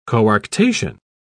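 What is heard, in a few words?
"coarctation", British English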